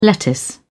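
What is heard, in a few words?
"lettuce", British English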